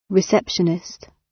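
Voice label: "receptionist", British; Business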